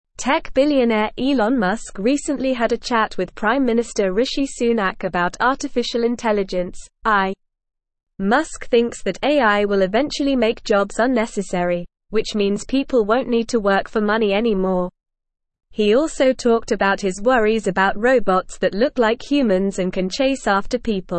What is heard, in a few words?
English Upper Intermediate NORMAL Reading; Elon Musk and Rishi Sunak discuss AIs impact; English Newsroom